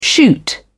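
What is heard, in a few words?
"shoot up", British English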